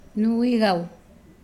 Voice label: Nawat palabras hermano menor; pipil

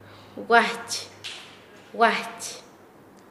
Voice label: cansarse, Nasa Yuwe; paez

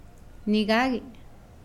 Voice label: Nawat palabras escuchar; pipil

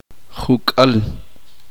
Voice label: "veinte", uspanteko

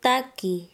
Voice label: "cancion", Quechua Chanka

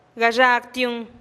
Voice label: "gaxagtiun" nos vemos, teotitlan

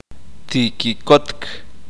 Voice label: "ser feliz", uspanteko

